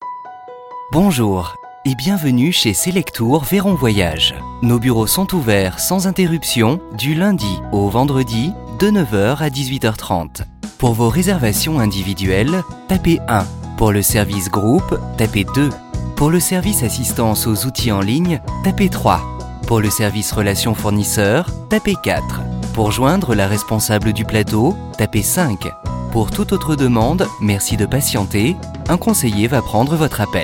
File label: french ivr